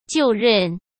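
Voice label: "就任", Mandarin Chinese; hsk; chinese-flashcards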